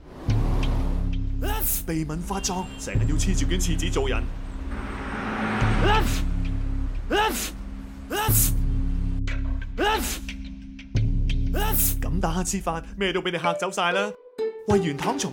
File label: Cantonese TVC